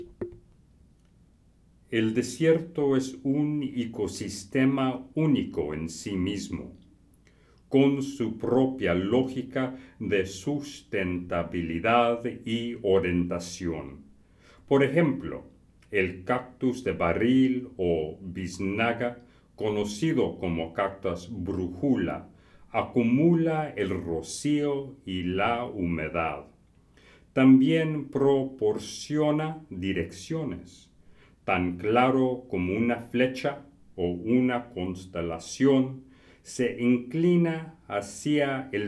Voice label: SPANISH